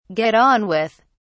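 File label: "get on with", British English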